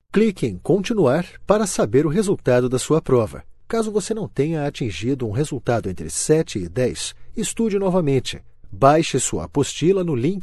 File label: Portuguese Brazilian e-learning